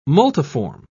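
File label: "multiform", English, British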